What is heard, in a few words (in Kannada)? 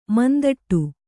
"ಮುಂದಿಡು", Kannada